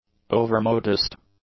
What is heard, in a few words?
"overmodest", English; adjectives